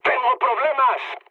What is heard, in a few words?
aircraft damaged, mood med, speech; War Thunder